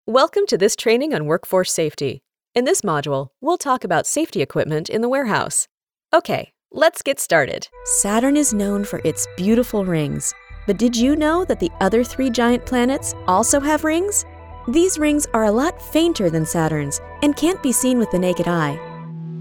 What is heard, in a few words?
Elearning Narration